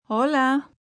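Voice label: Hello Spanish female; Greetings; Greetings and Goodbye; VoiceBox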